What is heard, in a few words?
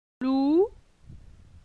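"Lou", French; phonetique; la syllabe ou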